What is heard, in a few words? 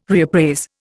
"reappraise", Indian